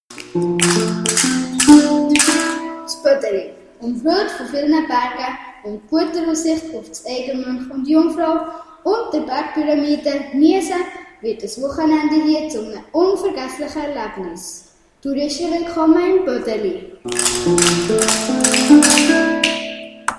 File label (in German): jingel; boedeli; Unterseen